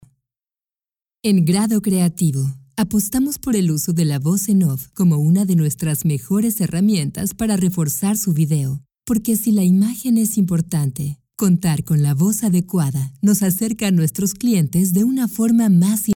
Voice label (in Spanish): ESPAÑOL